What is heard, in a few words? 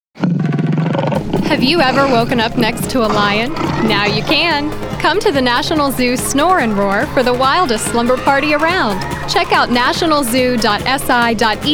Commercial